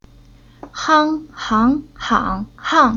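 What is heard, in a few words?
"Hang", Chinese syllable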